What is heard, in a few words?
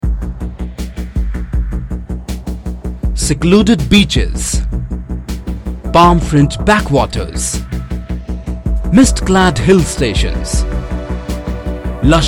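tourism film English voice, male voice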